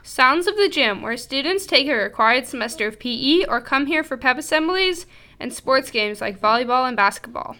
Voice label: Gym Narration